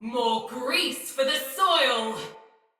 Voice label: See Player Shout Sinecure Soldier Female; Battle; Barks; Dialog